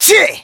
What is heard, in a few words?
Voice attack jp